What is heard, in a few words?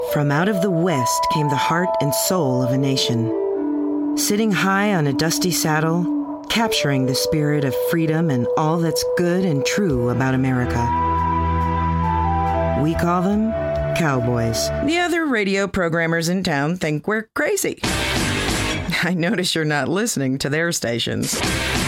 Commercial, Female voices